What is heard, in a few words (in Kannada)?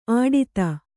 "ಆಡಿತ", Kannada